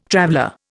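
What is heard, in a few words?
"traveller", Indian English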